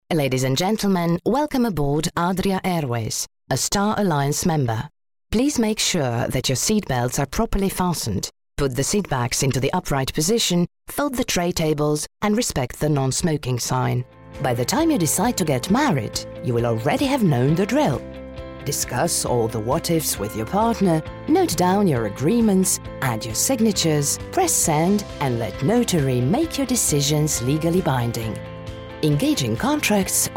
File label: SLOVENIAN Neutral European English; Reel